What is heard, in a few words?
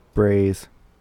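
"brays", US English; pronunciations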